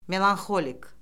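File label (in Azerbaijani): "melanxolik", Azerbaijani; Orfoqrafiya Lüğəti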